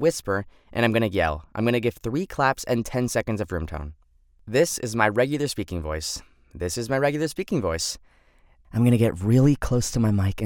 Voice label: Raw Studio Sample; bilingual Latino male voice actor

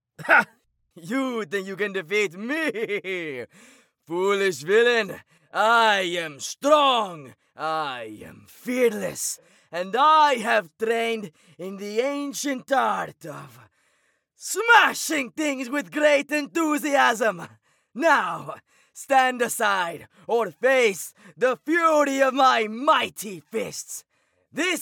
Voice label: Russian Accent Sample

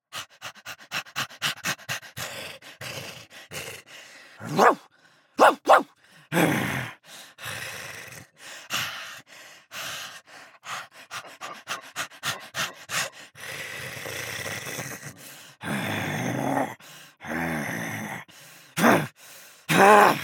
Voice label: creature; bilingual Latino male voice actor

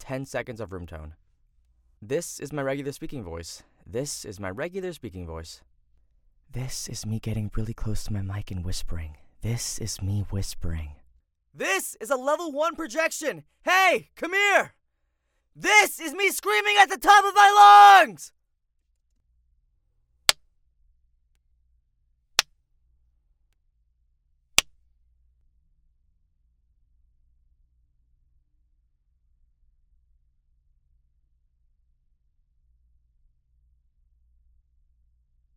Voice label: Raw Studio Sample; Spanish voice over for bilingual and Latin American audiences

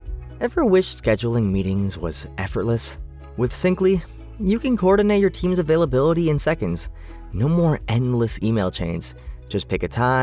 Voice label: Explainer Conversational Calm Friendly; male voiceover; Syncly

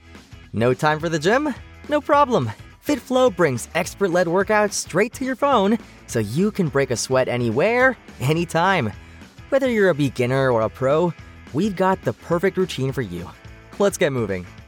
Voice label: Energetic Exciting Pumped; male voiceover; FitFlow

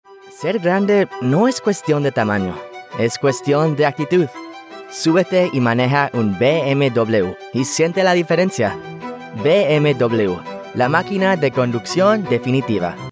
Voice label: spanish car

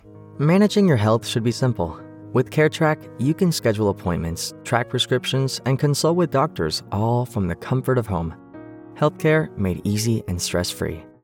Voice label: Empathetic Serious Calm Trustworthy; male voiceover; CareTrack

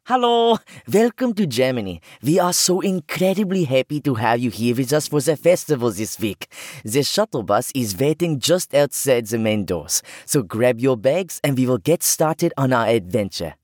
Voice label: German Accent

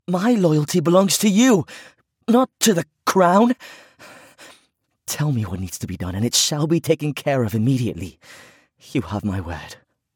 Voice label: British Accent

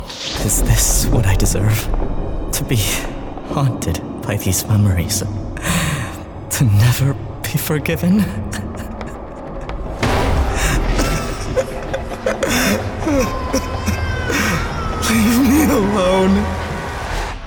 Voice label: Horror Nightmare; male voiceover

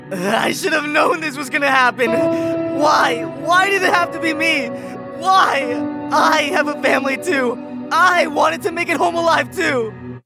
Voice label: despair

